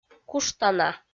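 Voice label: "куштана" Komi, female; kpv audio dict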